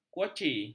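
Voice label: "kuaci", Malay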